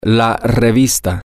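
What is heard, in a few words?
the magazine, spanish, male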